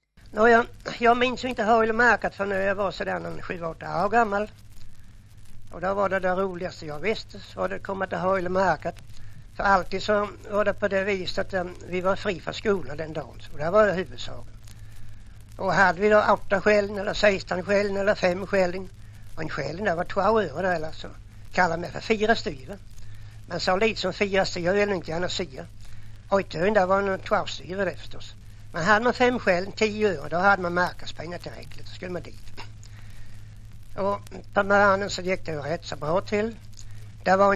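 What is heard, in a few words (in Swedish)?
Jämshög Blekinge, dialekter, svenska sagor; Folkminnen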